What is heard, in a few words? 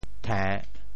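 "the~3", Teochew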